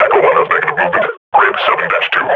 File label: idle, grunt